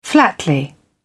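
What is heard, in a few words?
"flatly", British English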